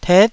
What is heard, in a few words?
"tet9", taiwanese Syllables